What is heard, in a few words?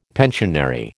"pensionary", American